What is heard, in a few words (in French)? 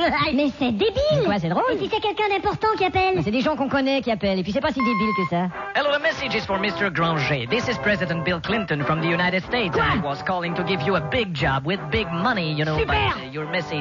consultation du répondeur